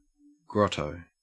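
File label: "grotto", Australian English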